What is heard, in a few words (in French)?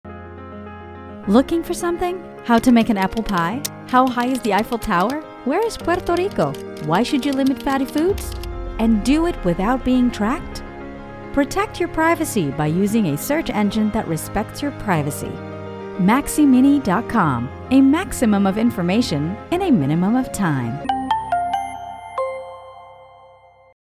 spot radio anglais; maximini